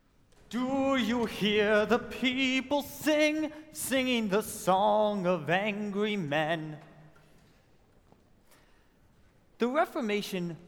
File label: narration